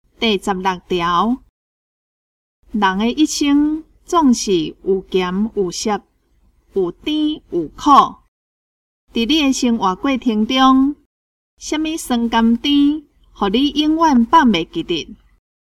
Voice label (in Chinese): 口語表達, 閩南語; 閩南語語言能力認證; 金安咱來考C